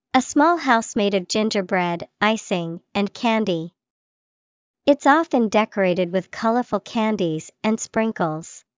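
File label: gingerbread house, English vocabulary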